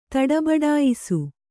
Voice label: "ತಡಬಡಾಯಿಸು", Kannada

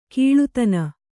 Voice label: "ಕೀಳುದನಿ", Kannada

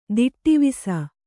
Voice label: "ದಿಟ್ಟಿವಿಸ", Kannada

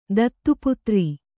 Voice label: "ದತ್ತುಪುತ್ರಿ", Kannada

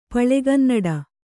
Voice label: "ಪಳೆಗನ್ನಡ", Kannada; paḷegannaḍa; noun

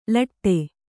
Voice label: "ಲೋಟ", Kannada

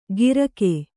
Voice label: "ಗಿರಕೆ", Kannada

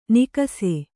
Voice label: "ನಿಕಸೆ" Kannada

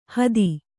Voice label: "ಹಾದಿ", Kannada

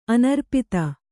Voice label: "ಅನರ್ಪಿತ", Kannada

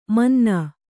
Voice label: "ಮುನ್ನಿ", Kannada

